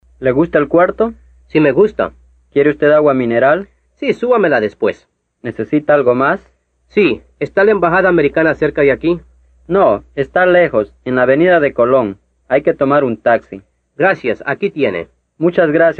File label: Dialogue Sample